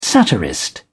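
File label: "satirist", British English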